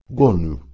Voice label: "gonu" PIE

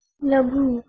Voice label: "laghu", Sanskrit

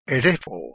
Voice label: "erepho", Ancient Greek

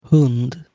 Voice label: "hund", Anglo Saxon

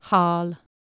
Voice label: "hal", Anglo Saxon

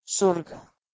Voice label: "surgo", Proto Germanic

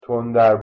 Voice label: "tondar", Persian

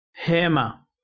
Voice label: "hema" Ancient Greek

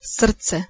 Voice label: "srce", Bosnian

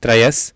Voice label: "trayas", Sanskrit

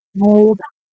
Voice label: "muldo", Proto Germanic

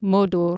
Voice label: "modor", Anglo Saxon

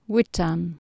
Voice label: "witan", Anglo Saxon

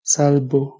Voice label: "salbo", Proto Germanic

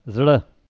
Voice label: "zra", Pashto

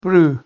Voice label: "broo", Scots

